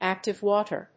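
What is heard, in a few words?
"active water", English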